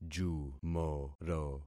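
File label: "jumoro", concatenated syllables; Affixing experiment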